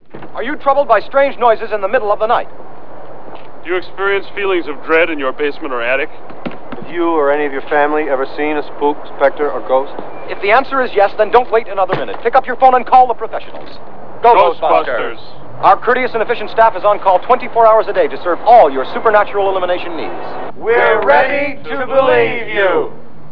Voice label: commercial